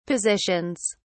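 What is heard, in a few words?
"positions", British English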